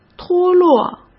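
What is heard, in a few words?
"脱落", Mandarin Chinese; hsk; chinese-flashcards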